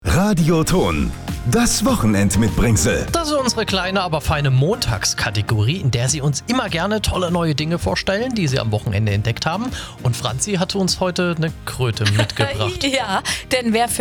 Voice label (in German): RadioTon Mitschnitt NABU Kroetenwanderung